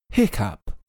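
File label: "hiccough", English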